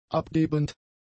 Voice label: "abgebend", German; adjectives

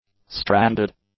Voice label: "stranded", English; adjectives

